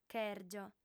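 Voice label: "chèrgio", Sardinian, female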